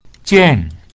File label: "jian4", Mandarin; (to meet); pinyin